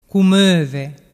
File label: "cumove", dialettu